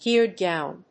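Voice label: "gear down", English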